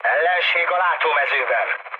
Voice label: enemy detected, speech hun; War Thunder